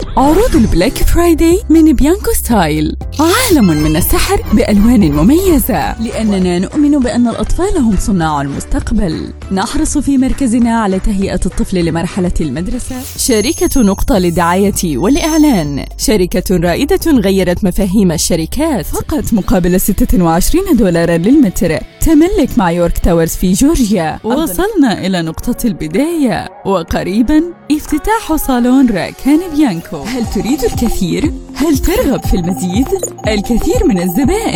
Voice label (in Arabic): Showreel العربية الفصحى